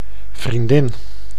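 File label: "vriendin", Dutch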